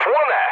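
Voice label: Polish on fire mood low; War Thunder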